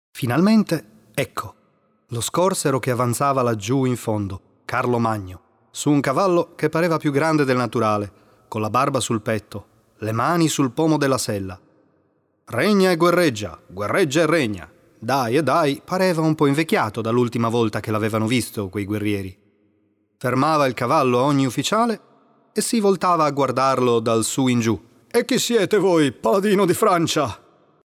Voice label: audiobook, italian